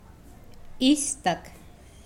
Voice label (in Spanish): Nawat palabras blanco; pipil